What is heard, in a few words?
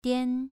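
"dian2", Chinese pronunciation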